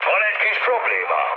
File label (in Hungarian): aircraft damaged mood med, hun; speech; War Thunder